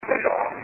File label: EVP get off; San Francisco; Alcatraz